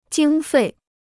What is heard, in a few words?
"经费", Chinese